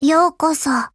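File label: HELLO, Japanese; GF Alarm; Six12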